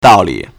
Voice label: "dao4 li3", Chinese